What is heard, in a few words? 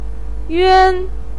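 "yuan1", Mandarin Chinese, pinyin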